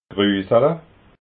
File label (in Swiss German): "grusala", alsace